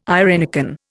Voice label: "irenicon", Indian

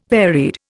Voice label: "varied", Indian

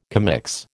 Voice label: "commix", American

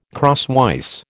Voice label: "crosswise", American

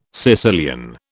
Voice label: "Sicilian", British

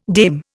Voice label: "dame", Indian

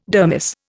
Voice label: "dermis", Indian

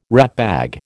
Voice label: "ratbag", American

